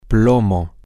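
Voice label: grey zero, spanish, male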